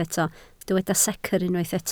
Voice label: "secr", Welsh

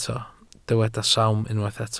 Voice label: "sawm", welsh

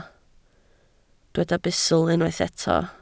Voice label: "bustl", Welsh

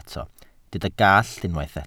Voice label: "gallt", welsh

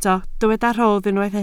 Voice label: "rhodd", Welsh

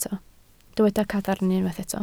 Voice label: "cadarn", Welsh

